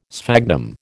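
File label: "sphagnum", American English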